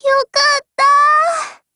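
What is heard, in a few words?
Japanese, Voice; GF Alarm; PPsh41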